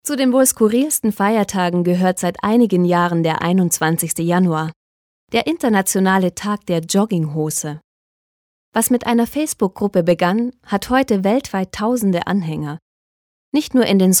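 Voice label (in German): Kommentar Freundlich; Jogginghose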